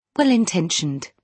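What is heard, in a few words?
"well intention", British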